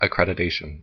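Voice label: "accreditation", US English; pronunciation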